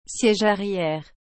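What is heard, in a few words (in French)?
"siege arriere", French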